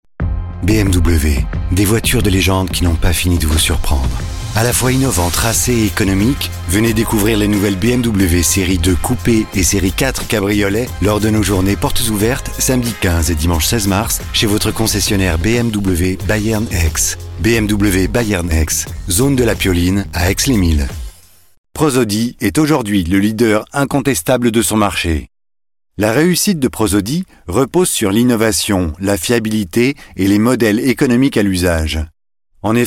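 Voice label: male, French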